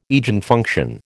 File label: "Eigenfunction", American